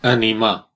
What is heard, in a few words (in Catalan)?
"cheer", voices ca words; gcompris